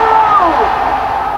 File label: VOICE, VOICE-FX